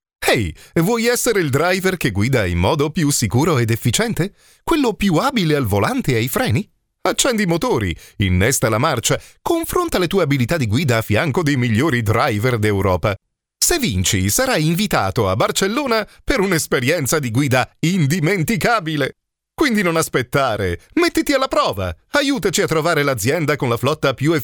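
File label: Italian, male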